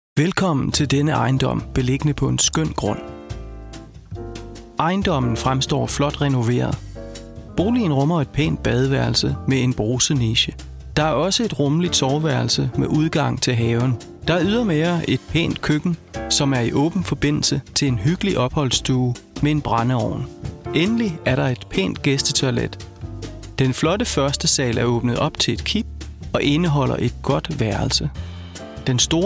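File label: Danish male eLearning; voice over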